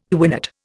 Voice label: "quinate", Indian